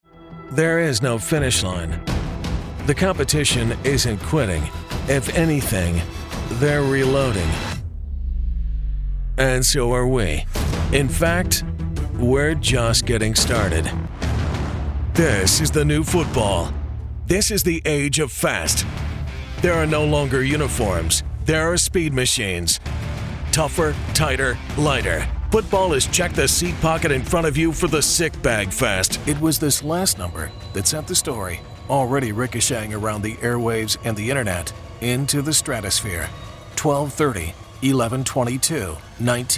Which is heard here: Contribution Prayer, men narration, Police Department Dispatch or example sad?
men narration